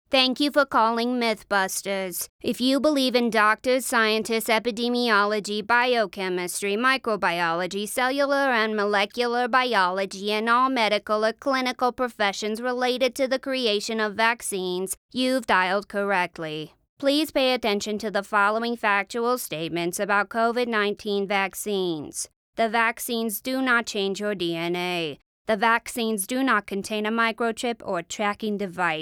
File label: Phone Greeting; CoronavirusUtah; Mythbusters